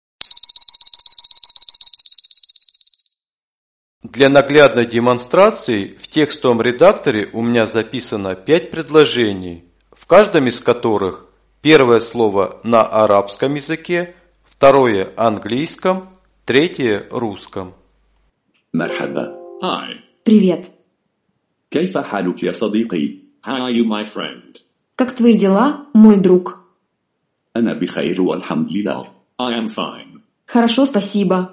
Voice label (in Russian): vocalizer voice демонстрация озвучивания трёх синтезаторов; в режиме Свитчера; nvda 2016